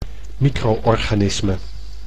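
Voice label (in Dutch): "micro-organisme" Dutch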